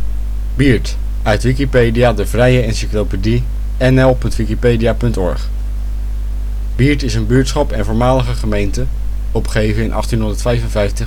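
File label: Biert (Bernisse) article, Dutch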